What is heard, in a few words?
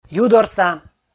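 "юдорса", Komi, male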